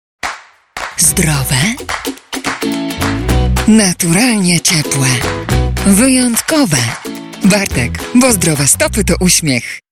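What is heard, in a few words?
voice female advert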